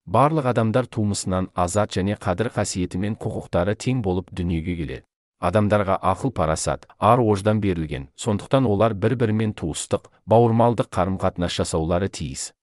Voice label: udhr kazakh; made with Text To Speech; Text To Speech Free